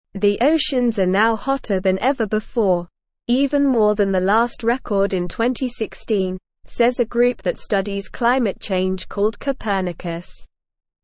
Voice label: Lower Intermediate SLOW Reading, English; The Ocean is Getting Hotter and Thats Bad; English Newsroom